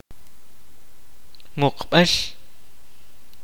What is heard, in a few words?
kaqchikel; Cemetery